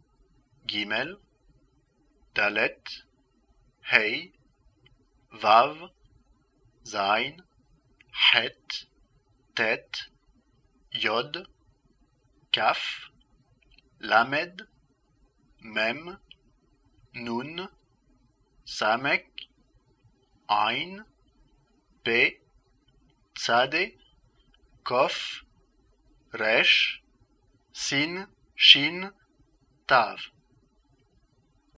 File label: Hebrew Consonants